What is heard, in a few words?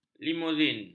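"limousine", Malay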